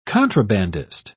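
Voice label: "contrabandist", British English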